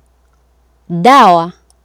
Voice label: "dawa", Swahili